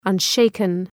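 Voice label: "unshaken", English; {ʌn’ʃeıkən}; (Επίθετο)● ακλόνητος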